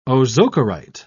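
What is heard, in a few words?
"ozocerite", British English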